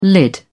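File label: "lid", British English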